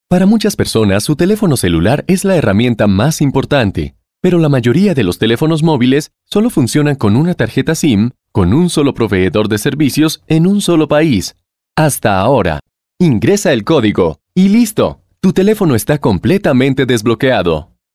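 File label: male Spanish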